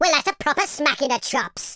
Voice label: fatality, Welsh; speech